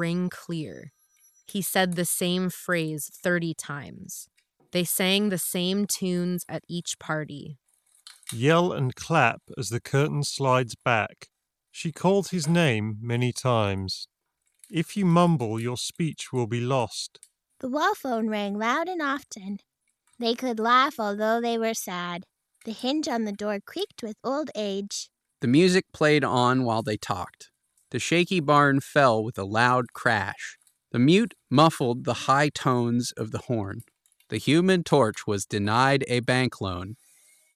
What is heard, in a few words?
dongle Office microphone sample; Razor Blackshark V2 Pro